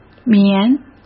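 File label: "棉", Mandarin Chinese; hsk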